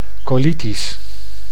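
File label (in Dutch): "colitis", Dutch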